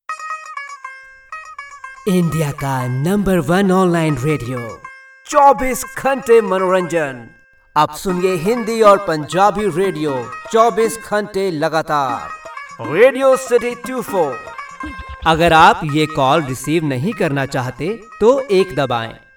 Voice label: Radio; Radiocity 24